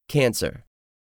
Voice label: "cancer", english; 3000 words